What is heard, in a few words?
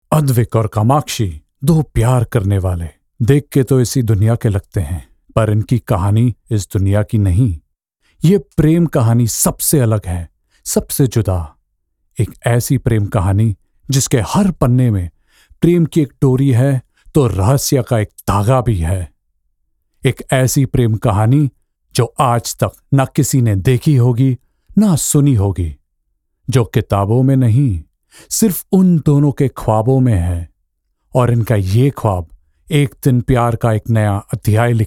Hindi MVO Promo TV Serial